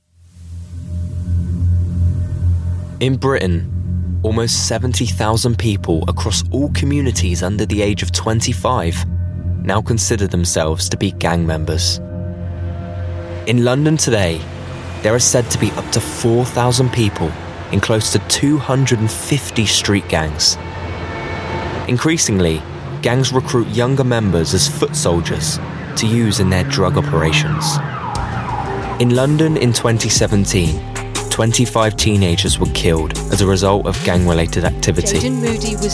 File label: documentary